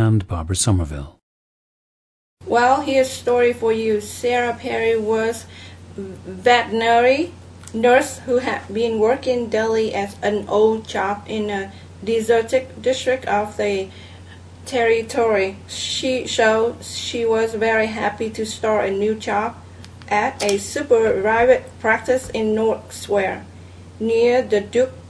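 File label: vietnam, International Dialects of English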